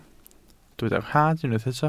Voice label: "rhad", Welsh